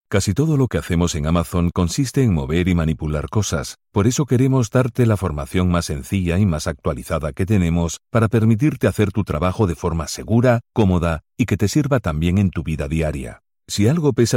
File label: Spanish Corporate